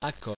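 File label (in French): "accord" French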